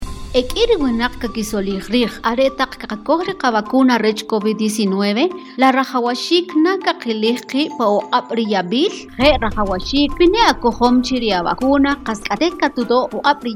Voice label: SPOT KICHE